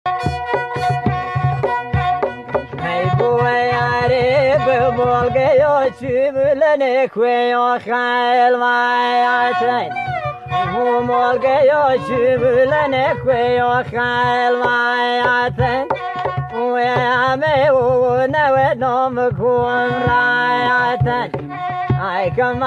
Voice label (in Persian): لری